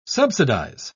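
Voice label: "subsidise", British English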